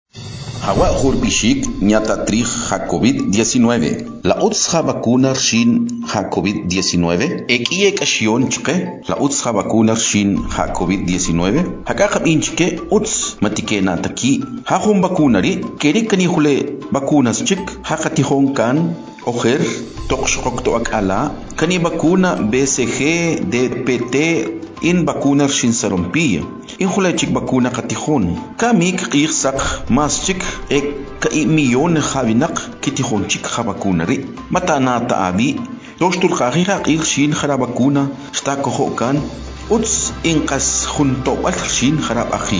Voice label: Spot Tzutujil